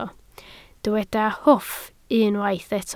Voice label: "hwff", Welsh